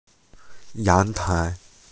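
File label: "yang2 tai2", Mandarin Chinese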